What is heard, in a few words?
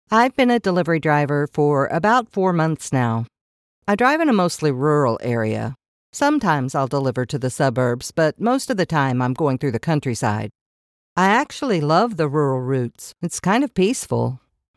Corporate · eLearning, Clear · Thoughtful · Conversational; Left Turn